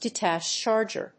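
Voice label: "detach charger", English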